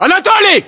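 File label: "anatoly", battlechatter, Russian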